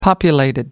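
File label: "populated", British English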